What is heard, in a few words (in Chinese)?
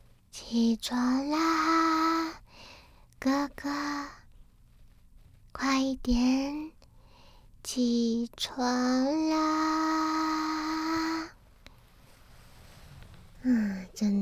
叫床唤醒语音